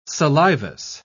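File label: "salivous", British English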